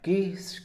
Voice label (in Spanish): "semejante", Mixe, hombre; Espaciales